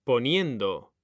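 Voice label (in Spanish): "PONIENDO", Spanish; mekanta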